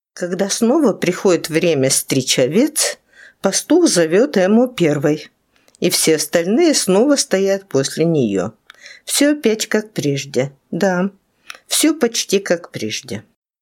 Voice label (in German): russisch